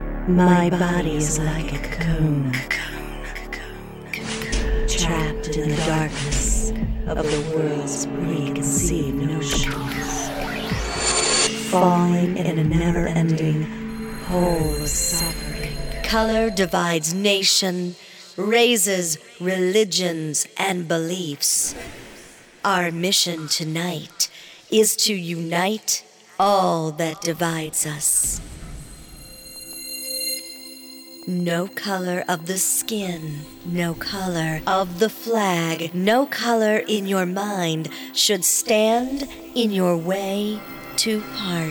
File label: Movie Event Announcing